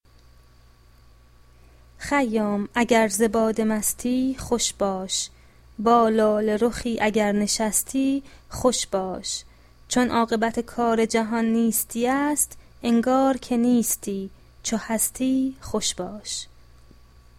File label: pronunciation, Persian